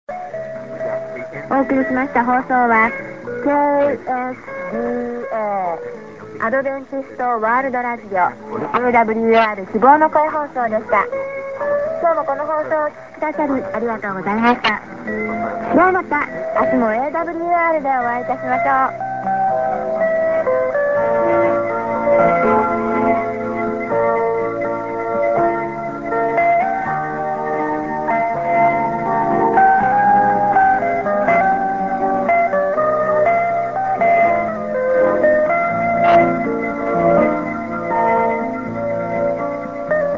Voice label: Japanese End ID->SKJ (women); awr